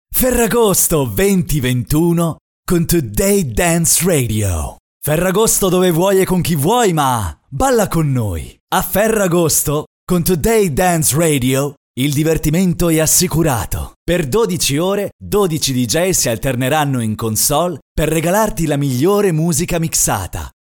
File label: energeti